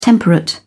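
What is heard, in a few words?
"temperate", British English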